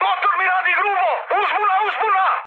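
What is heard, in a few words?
Serbian damaged engine mood high, speech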